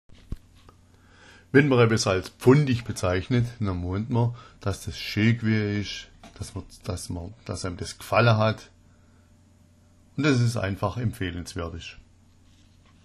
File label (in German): pfondich, schwaebisch